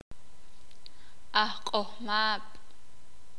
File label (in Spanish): "Marimberos", Sakapulteko